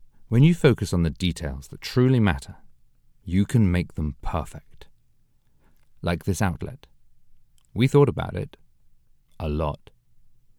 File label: Commercial; Delta Hotels